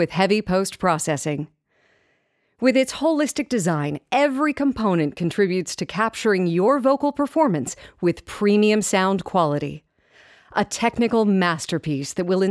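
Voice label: pure tube female voice over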